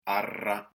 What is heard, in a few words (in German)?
alveolarer Trill